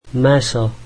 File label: slovak meat